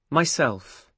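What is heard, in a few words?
"myself", British English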